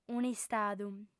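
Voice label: "un'istadu", lingua sarda, female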